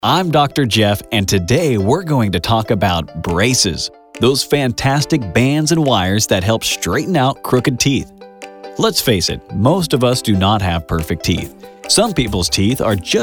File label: medical Narration